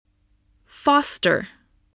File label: "foster", English voice, spelling